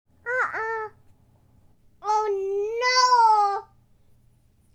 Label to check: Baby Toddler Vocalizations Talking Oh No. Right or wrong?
Right